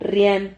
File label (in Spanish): "rrian", silabas